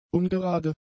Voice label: "ungerade", German; adjectives

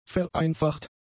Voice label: "vereinfacht", German; adjectives

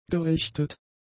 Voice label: "gerichtet", German; adjectives

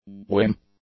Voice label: "whim", English; nouns

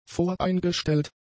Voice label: "voreingestellt", German; adjectives

